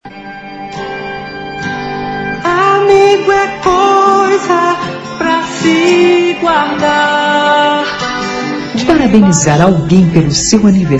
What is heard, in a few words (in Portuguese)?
Mensagem Aniversario Amiga F